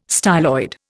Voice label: "styloid", Indian